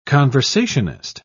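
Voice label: "conversationist", British English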